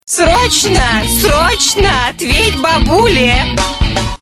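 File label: call from grandma urgent answer grandma rock mix; zvonok ot babushki srochno otvet babule rock mix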